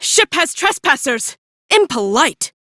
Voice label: Crew Enemy Spot, female; Warframe; Railjack; Cephalon Suda